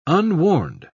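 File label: "unwarned", English, British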